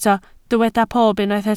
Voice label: "pob", Welsh